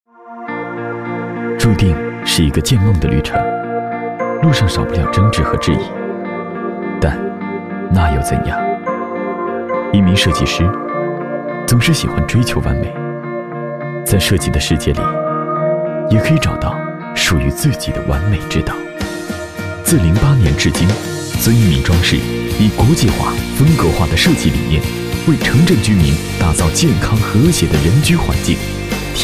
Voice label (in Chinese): 男 设计广告 自信沉稳